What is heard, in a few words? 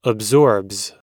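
"absorbs", American English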